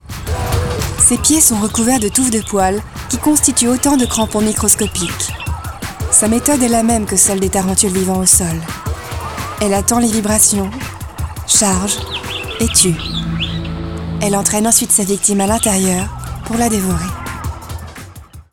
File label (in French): documentaire TARENTULE